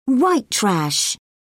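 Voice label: "white trash", British English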